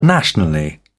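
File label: "nationally", British English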